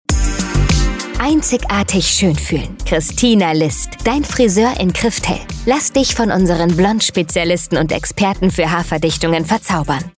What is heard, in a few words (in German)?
Funkspot